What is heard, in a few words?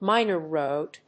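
"minor road", English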